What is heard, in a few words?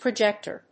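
"projector", English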